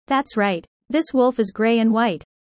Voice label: user fast; Animal friends